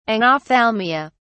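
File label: "anophthalmia", British English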